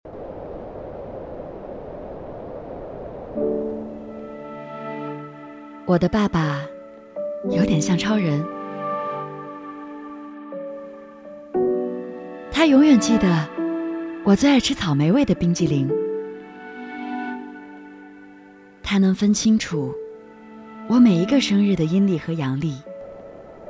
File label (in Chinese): 女 广告 温暖 感人; 香港雅兰家居